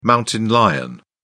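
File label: "mountain lion", British English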